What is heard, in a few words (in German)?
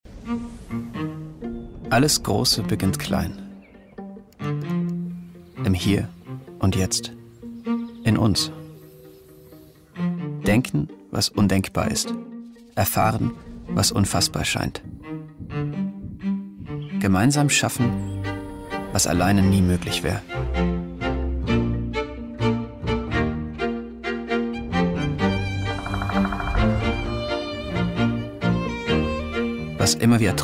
Mann